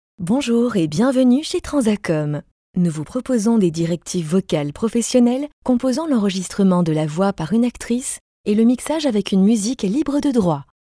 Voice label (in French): Dynamique, actrices